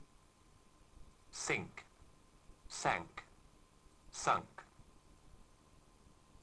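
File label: "sink", English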